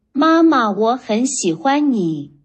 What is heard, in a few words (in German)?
Chinesisch